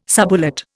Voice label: "subulate", Indian